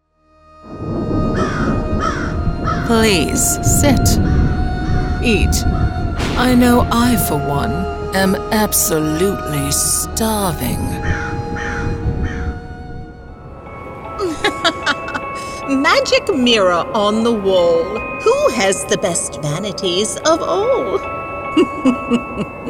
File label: Horror